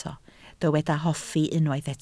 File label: "hoffi", Welsh